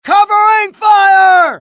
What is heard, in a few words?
suppress, dialogue, US; mohaa